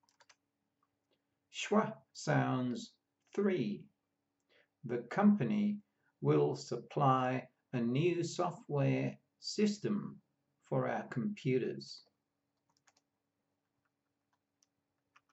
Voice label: Schwa sounds, pronunciation of australian english